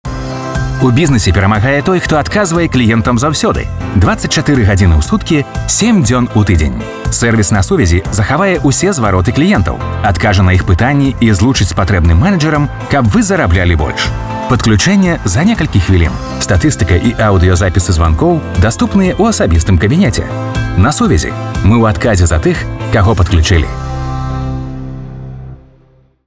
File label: belarusian commercial